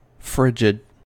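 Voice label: "frigid", American English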